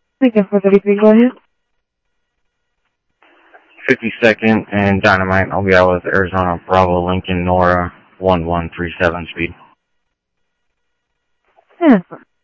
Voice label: scanner audio trunk